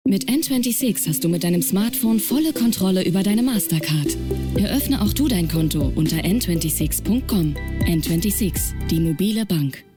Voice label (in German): werbung spot; n26